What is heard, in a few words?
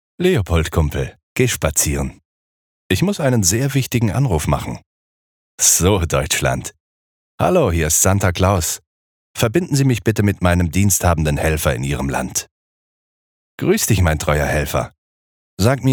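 Informative voice over men German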